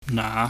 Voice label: "na", pingyam